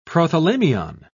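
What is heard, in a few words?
"prothalamium", British English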